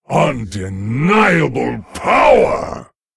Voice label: Male Lich WOW; en